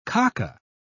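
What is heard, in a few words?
"kaka", British English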